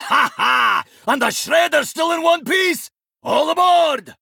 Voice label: Male Dwarf Victory; Tavish

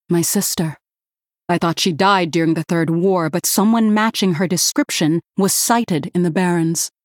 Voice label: Female Human; Cariel; Kurtrus

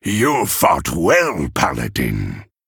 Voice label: male human, Victory

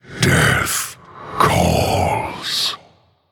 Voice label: Male Human Thinking

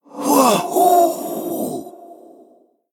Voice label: Male Human Ghost Death

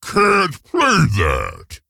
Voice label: Ogre Emote error play; Boulderfist

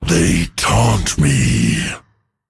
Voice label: male drust, Emote Error Taunt

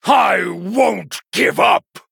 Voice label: male human

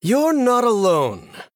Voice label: Male Human MIRROR START

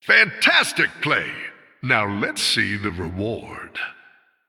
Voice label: Male Human After Triple

